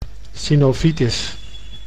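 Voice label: "synovitis", Dutch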